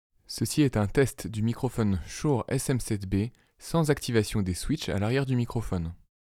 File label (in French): test SM7B voix normal